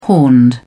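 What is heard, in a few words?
"horned", British English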